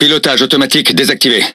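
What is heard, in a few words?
French Speech Autopilot Disabled; World Of Warships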